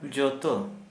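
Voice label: Santali male every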